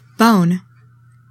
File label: "bone", english; 3000 words